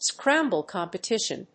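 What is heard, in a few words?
"scramble competition", English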